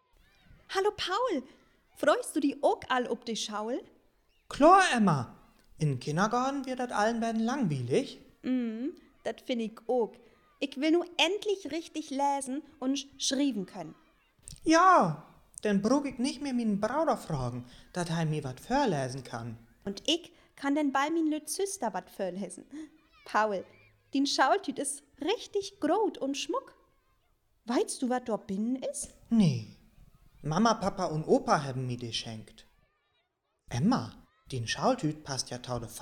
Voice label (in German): Dialog, niederdeutsch